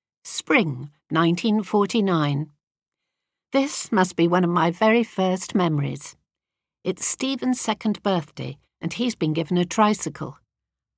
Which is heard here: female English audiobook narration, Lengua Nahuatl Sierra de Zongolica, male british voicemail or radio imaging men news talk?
female English audiobook narration